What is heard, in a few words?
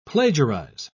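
"plagiarise", British English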